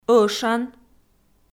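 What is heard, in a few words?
"ӧшан", Komi, female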